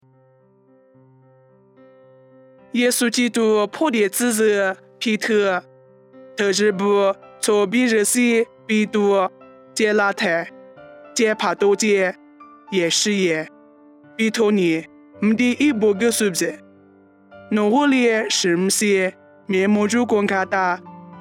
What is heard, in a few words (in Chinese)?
凉山彝语; 地中海加帕多家; nuosu